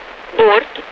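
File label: Russian speech, Mi-8MT RI65; board; DCS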